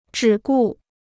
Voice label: "只顾", Chinese

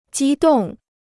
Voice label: "激动", Chinese

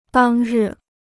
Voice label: "当日", Chinese

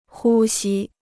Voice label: "呼吸", Chinese; 呼吸 (hū xī); to breathe

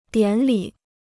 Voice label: "典礼", Chinese

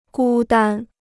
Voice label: "孤单", Chinese

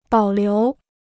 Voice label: "保留", Chinese

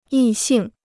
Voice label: "异性", Chinese

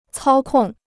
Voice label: "操控", Chinese

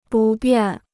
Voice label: "不便", Chinese

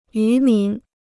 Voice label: "渔民", Chinese

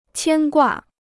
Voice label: "牵挂", Chinese

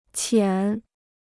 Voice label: "浅", Chinese

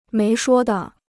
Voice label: "没说的", Chinese

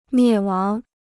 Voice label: "灭亡", Chinese

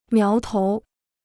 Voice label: "苗头", Chinese

